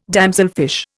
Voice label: "damselfish", Indian

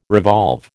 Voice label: "revolve", American

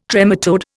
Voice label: "trematode", Indian

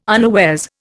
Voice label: "unawares", Indian

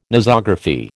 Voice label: "Nosography", American

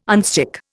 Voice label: "unstick", Indian

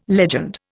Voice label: "legend", Indian

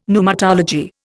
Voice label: "pneumatology", Indian; Dictionary

